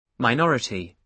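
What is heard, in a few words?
"minority", British English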